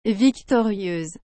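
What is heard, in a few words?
"victorieuse", French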